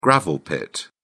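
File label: "gravel pit", British English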